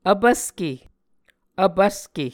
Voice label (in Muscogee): "vpvske", muscogee